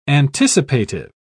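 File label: "anticipative", English, British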